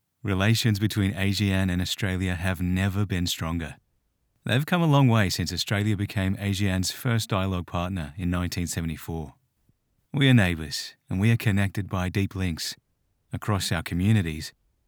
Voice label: Australian Corporate

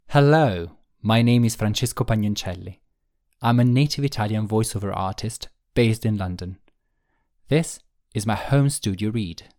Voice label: Home Studio Read; European, Italian, Male, 20s-30s

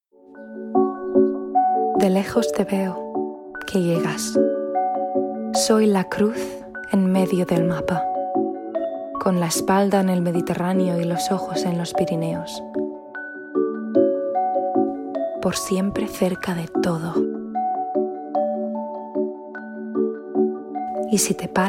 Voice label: Spanish

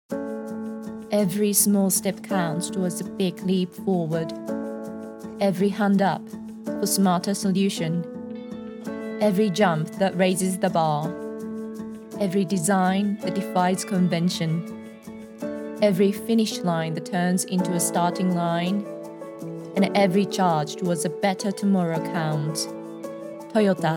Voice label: English

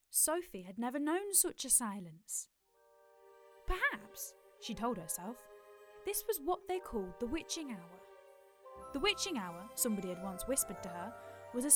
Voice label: HOME STUDIO

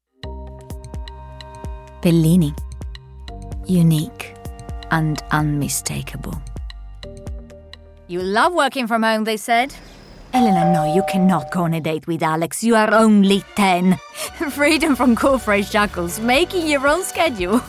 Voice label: English Italian commercial

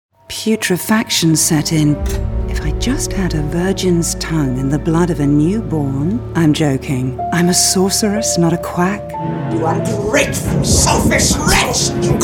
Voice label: Computer Games, london

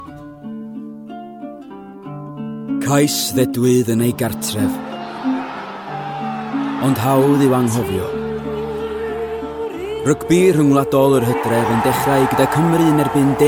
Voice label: Welsh; Welsh, Male, 20s-30s

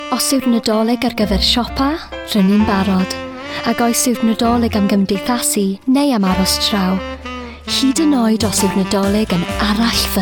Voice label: Welsh

